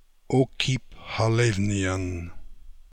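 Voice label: "Okipkhalevnian", pronunciations; Fourth Way; Beelzebub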